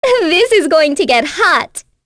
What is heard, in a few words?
Vox Skill, en; kingsraid; voices heroes